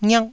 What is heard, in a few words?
"ngiak1", Taiwanese syllable